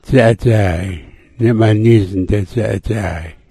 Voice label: "dza'adzay", smalgyax